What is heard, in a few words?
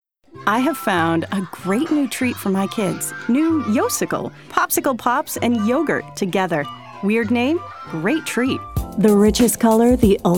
Commercial